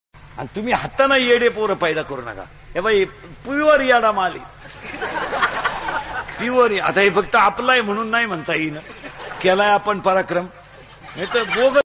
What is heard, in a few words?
comedy kirtan; indurikar maharaj